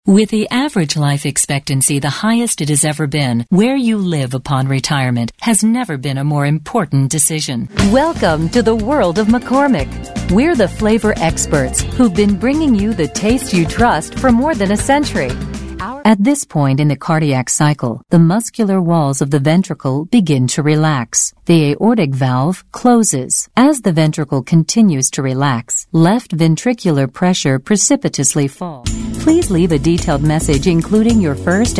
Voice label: American Female